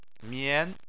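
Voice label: "mian", Chinese, second tone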